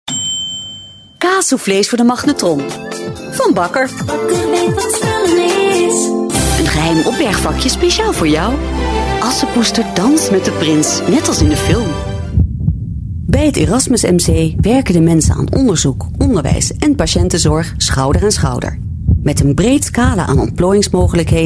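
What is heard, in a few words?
Dutch, female, commercial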